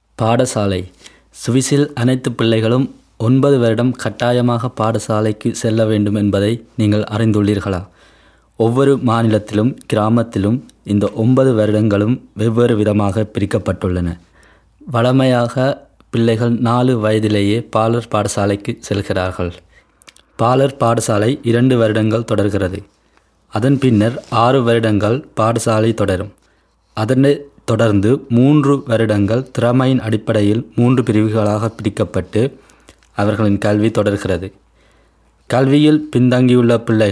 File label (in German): tamil